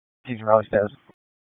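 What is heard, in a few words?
Police Dispatch, p25; wake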